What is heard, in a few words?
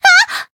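damaged, Japanese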